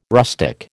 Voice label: "rustic", American

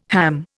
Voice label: "ham", Indian

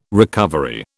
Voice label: "recovery", British

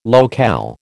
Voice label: "locale", American

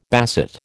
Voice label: "basset", American

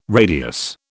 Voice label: "radius", British

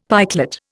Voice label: "pikelet", Indian; Dictionary

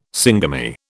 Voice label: "syngamy", British

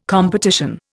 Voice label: "competition", Indian

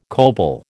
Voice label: "coble", American

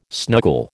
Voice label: "snuggle", American

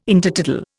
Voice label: "Intertidal", Indian

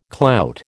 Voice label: "clout", American

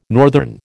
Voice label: "northern", American

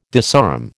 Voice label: "disarm", American

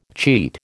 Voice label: "cheat", American

